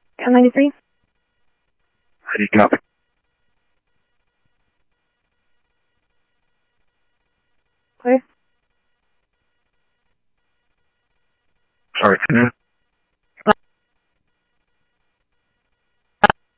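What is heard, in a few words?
police department, scanner audio trunk; H-Scottsdale; SCTSDLE PD - PD2 ScottsdalePolice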